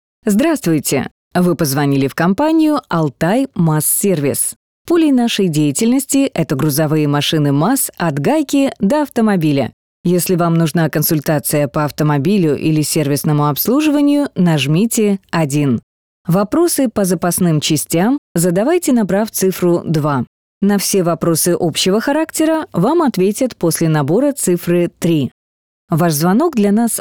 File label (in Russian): Голосовое приветствие IVR; АВТООТВЕТЧИКИ; Компания Алтай МАЗ Сервис